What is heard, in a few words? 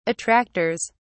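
"attractors", British English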